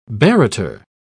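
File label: "barrater", British English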